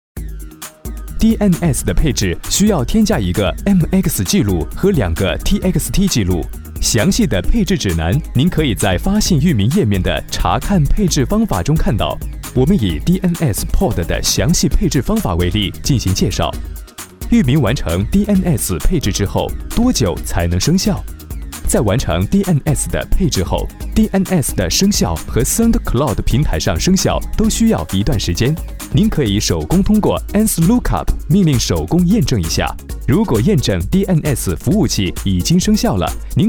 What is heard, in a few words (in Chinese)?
时尚 生动 网络平台 宣传, 国语男声配音, 大气沉稳